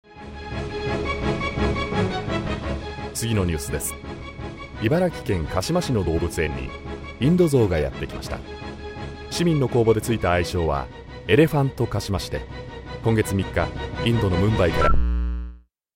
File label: news